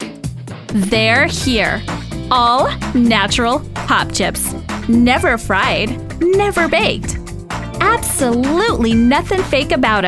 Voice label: Sassy Valley Girl; Popchips